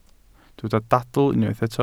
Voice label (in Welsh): "dadl" Welsh